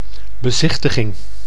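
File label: "bezichtiging", Dutch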